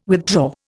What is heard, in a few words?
"withdraw", Indian